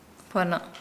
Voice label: Nukuoro; close